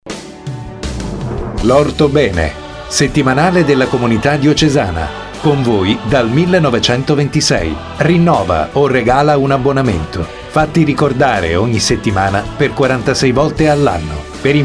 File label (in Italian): Spot radio; L Ortobene